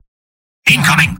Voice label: spy incoming; mvm

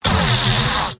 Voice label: scout jeers; mvm

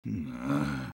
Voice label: negative vocalization; sniper; garrysmod; tf2-content-gmodcontent

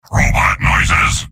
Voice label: soldier robot; mvm

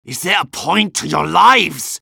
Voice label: medic jeers; tf2

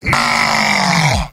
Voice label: heavy jeers; mvm

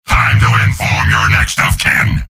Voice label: soldier taunts; tf2; garrysmod; mvm

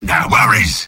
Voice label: sniper positive vocalization; tf2; mvm

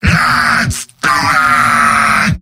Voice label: battlecry, demoman; tf2; garrysmod; mvm

